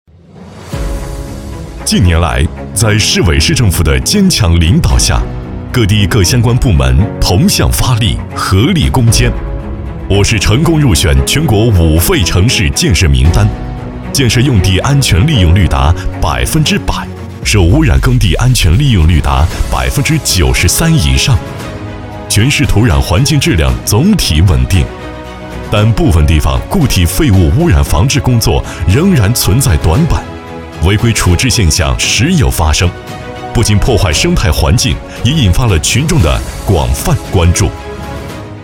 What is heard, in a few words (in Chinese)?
男 专题 固体废物监管处置